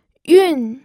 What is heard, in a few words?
"yun4", pinyin